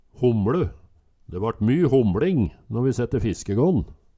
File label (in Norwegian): homLe, Numedalsmål; Det vart my homLing nå vi sette fiskegån